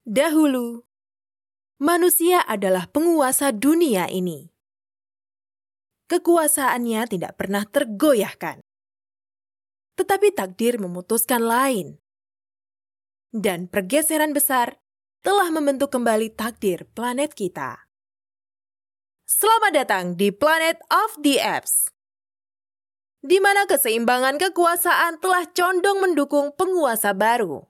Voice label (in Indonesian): Narasi Indo